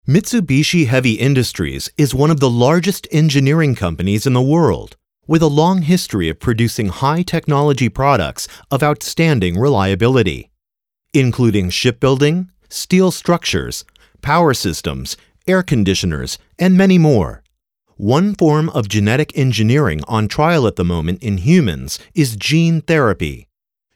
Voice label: US English Narration

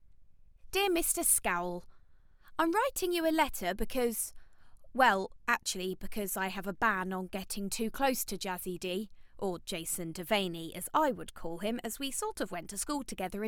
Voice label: Young Adult Novel Audiobook

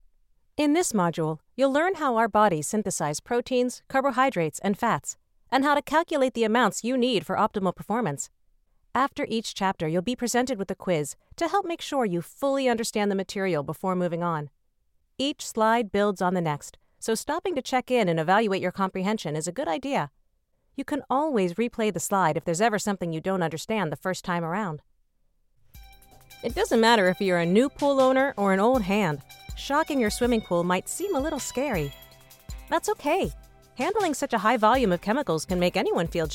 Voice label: ELearning

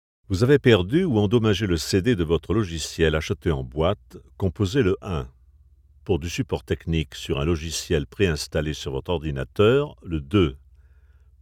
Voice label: French IVR

